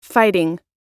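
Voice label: "fighting", US English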